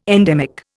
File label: "endemic", Indian